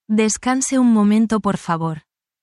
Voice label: spanish, spanish phrases you can use in any workplace